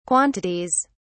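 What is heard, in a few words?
"quantities", English, British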